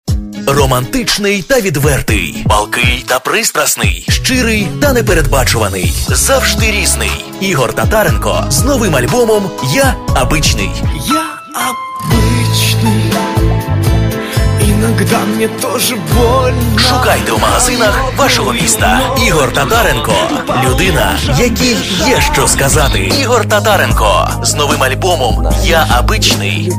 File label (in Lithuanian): Ukrainieciu balsas, vyras